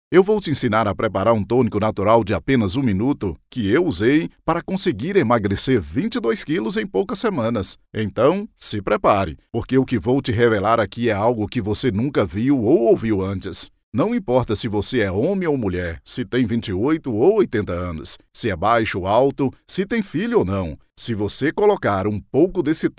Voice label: portuguese brazilian e learning